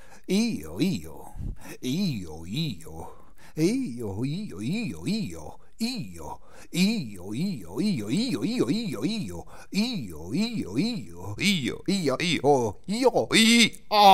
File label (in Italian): Monologo